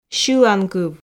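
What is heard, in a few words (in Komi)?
"сьыланкыв", Komi, female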